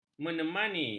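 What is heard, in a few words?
"menemani", Malay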